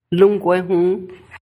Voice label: original person male